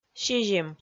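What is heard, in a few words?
"сизим", Komi, female; kpv audio dict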